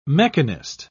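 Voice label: "mechanist", English, British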